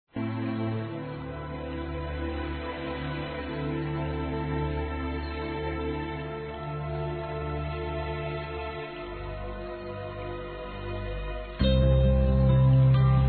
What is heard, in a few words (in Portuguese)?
GERAL F; Telemensagens 2017; Dia das Mães